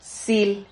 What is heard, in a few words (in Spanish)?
"sil", silabas